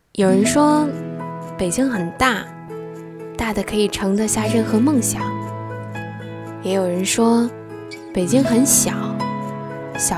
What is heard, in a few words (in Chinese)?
素人风